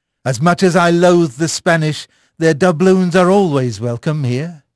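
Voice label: English male; Pirates Of The Caribbean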